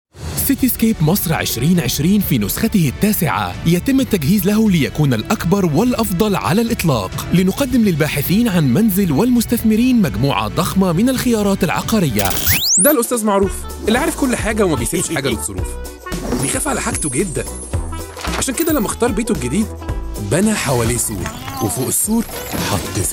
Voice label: Egyptian Arabic Reel